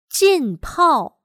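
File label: "浸泡", Chinese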